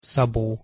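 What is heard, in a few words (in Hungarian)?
"szabo", Hungarian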